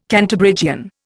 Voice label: "Cantabrigian", Indian